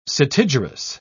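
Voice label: "setigerous", British English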